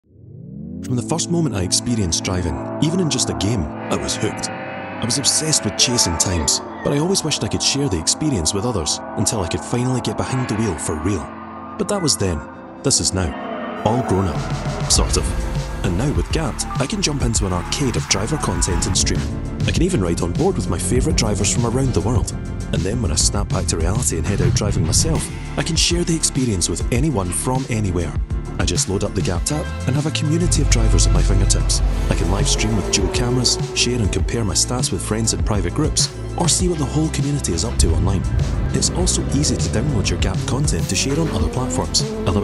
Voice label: Narration, Scottish voice overs